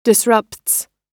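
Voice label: "disrupts", American English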